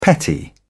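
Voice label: "petty", English, British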